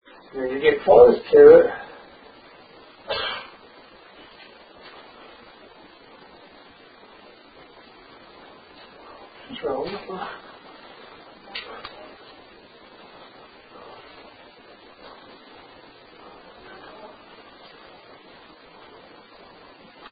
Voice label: Master Bedroom Inaudible; Case 021 Zepp Home